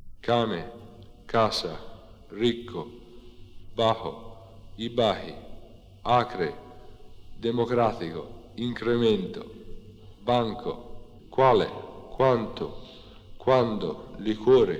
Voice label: K Toscana

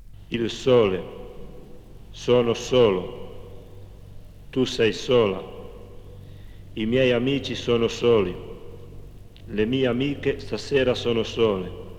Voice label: O chiuso Genova

